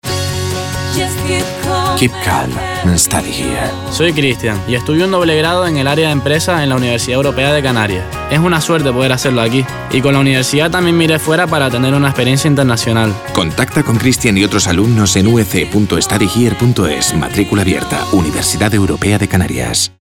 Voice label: Christian radio